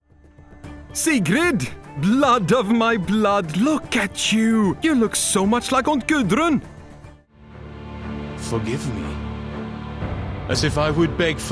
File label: Animation Video Game English